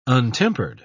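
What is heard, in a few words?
"untempered", British English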